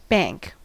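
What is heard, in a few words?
"bank", US English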